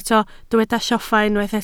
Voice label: "lloffa", Welsh